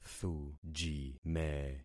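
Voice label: "thujime", concatenated syllables; Affixing experiment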